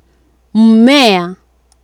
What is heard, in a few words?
"mmea", Swahili